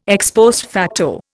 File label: "ex post facto", Indian